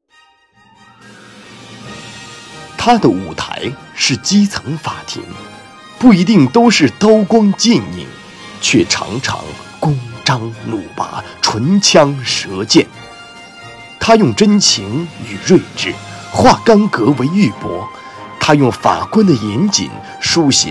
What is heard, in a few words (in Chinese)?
颁奖配音; 法官